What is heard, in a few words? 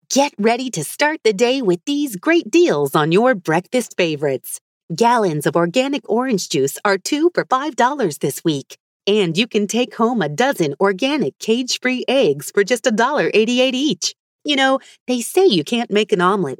Casual Conversational